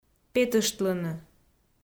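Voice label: "петыштлыны", Komi, female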